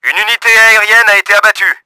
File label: shot down, french; Battle Engine Aquila; XBOX